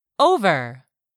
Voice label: "OVER", English; 3000 words